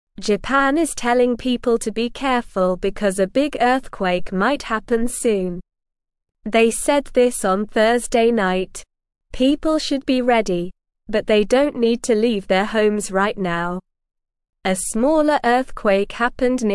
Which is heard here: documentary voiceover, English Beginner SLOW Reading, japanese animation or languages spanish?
English Beginner SLOW Reading